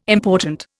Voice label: "important", Indian

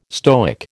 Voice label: "Stoic", American

